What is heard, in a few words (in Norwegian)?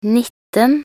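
"nitten", Norwegian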